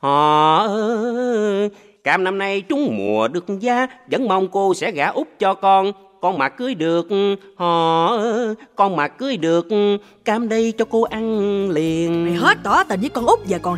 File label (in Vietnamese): Thu Am Quang Cao Radio Ad; Phan Bon YaRa Cay Cam